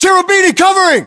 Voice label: response covering, battlechatter us